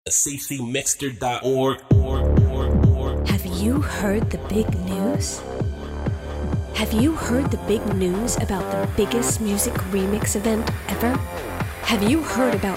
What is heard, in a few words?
Promo